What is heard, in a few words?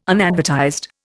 "unadvertised", Indian